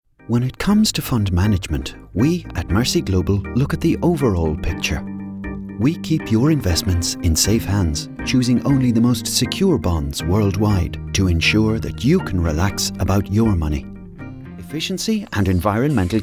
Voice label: male british english comercial; ideasonora